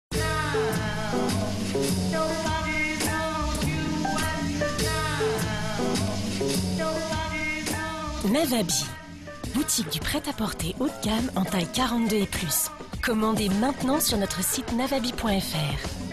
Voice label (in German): WERBUNG; navabi